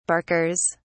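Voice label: "barkers", British English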